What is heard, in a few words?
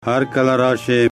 you're welcome, pashto